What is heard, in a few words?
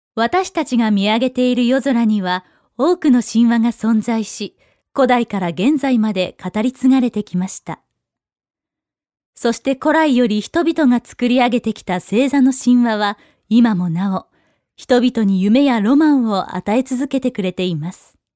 narration, f natural; ナチュラルな雰囲気; chara navi; chara navi voice sample